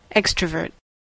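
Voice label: "extrovert", American English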